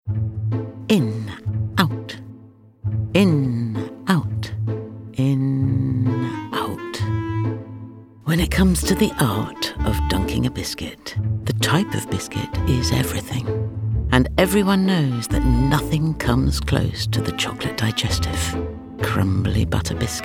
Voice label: Smooth, Inviting, Luxurious; McVities